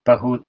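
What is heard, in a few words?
"pahur", Proto-Indo-European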